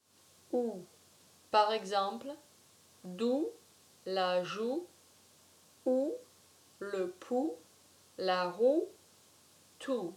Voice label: "ou", french